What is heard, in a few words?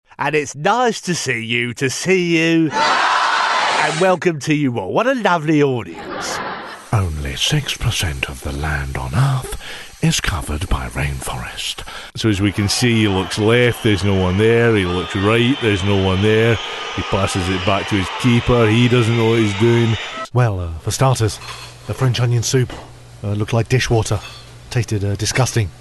impressions